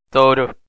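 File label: "dogru", Turkish, male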